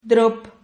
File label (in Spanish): "drop", Silabas